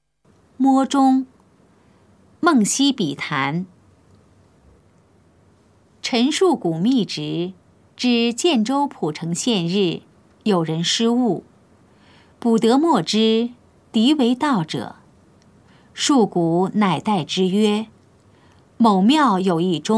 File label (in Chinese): putonghua; 摸鐘; 普通話